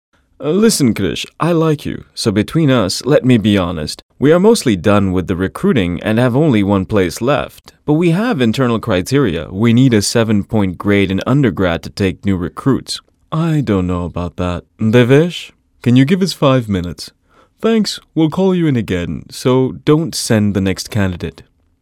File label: Characters English, male voice